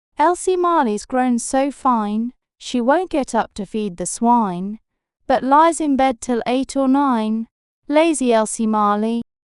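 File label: female British; Elsie Marleys Grown So Fine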